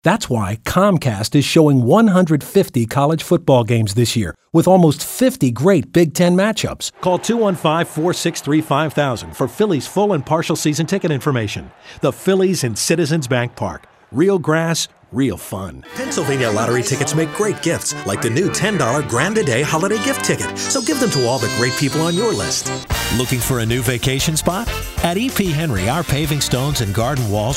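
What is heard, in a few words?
commercial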